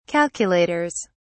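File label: "calculators", English, British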